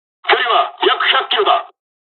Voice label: dialog, dialogs japanese; War Thunder